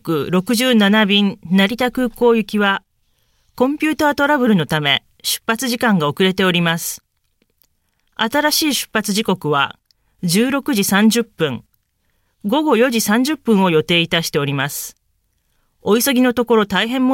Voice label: Public Announcement